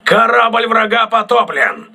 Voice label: voice message enemy destroyed, crew ships russian

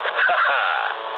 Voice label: trick, speech ru

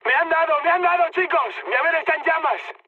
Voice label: on fire, mood high, speech; War Thunder

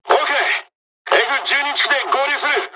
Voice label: dialogs japanese; War Thunder

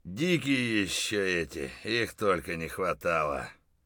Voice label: mutter; Escape from Tarkov

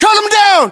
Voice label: order attack infantry, US

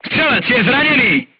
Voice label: voice message gunlayer wounded, crew tanks czech

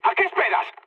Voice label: attack position, mood high, speech; War Thunder

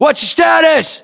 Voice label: status check, us; localized english; battlechatter

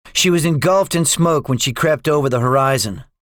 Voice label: debriefing, english; War Thunder

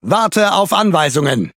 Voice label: Speech Pilots Torpedo, DE

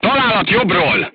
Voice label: voice message shot right, crew tanks hungarian

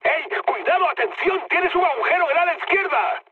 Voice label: left wing, mood high, speech; War Thunder

